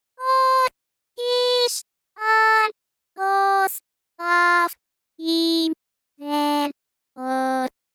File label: doremi rev